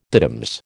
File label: "diddums", American